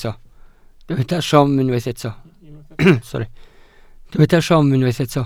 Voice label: "siom", Welsh